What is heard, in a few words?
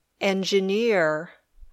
"engineer", English